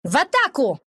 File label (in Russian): Wind attack, ru; Dota 2